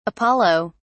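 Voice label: "apollo", British English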